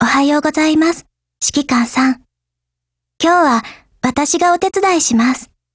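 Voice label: hello, Japanese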